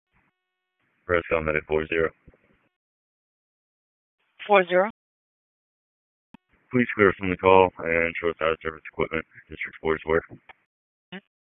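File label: EMS Dispatch, p25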